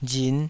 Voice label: "rin5", taiwanese